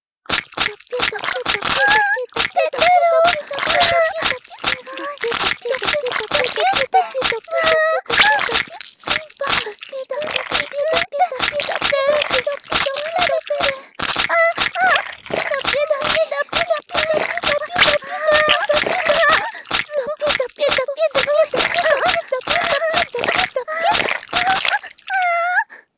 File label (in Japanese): 左右射精音付きどぴゅどぴゅ淫語